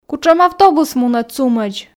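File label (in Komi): кутшӧм автобус мунӧ цумӧдз, Komi, female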